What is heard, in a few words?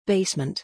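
"basement", English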